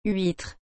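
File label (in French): "huitre", French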